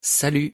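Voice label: pronunciation "salut", French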